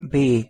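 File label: "b", Hungarian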